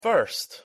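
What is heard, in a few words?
pronunciation "first", English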